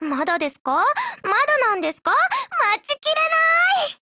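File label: LOADING Japanese; MK3A1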